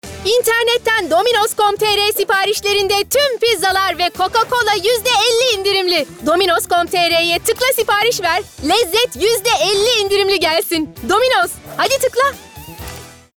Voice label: Promosyon

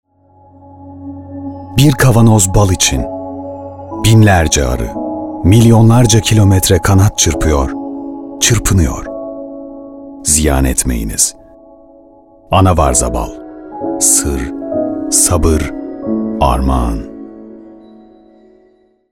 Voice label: Romantik

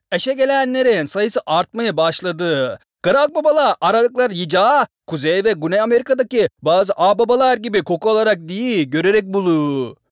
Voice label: Ege Lehcesi

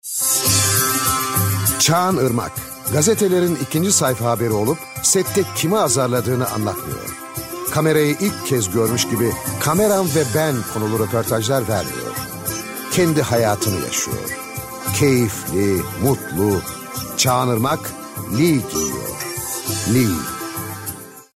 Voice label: Neseli

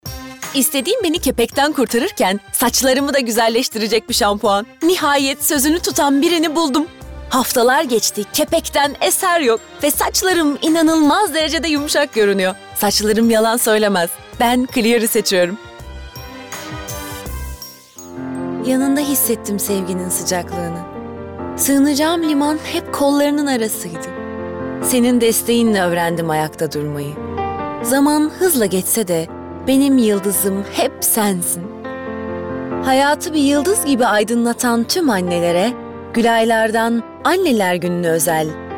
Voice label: Reklam

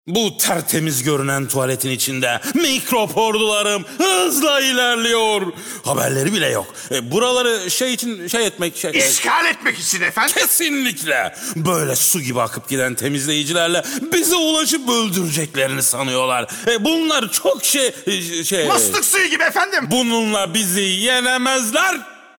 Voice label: ANIMASYON

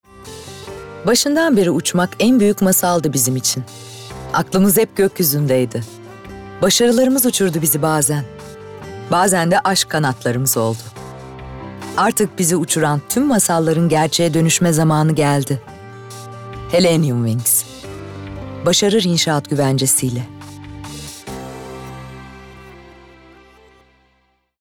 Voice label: Tanitim

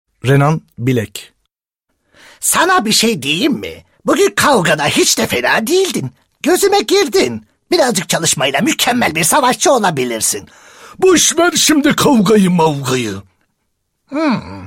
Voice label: ANIMASYON, tr

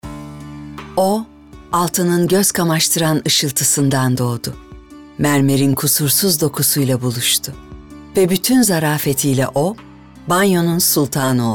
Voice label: Reklam

